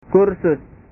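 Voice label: "kursus", Indonesian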